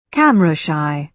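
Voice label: "camera-shy", British English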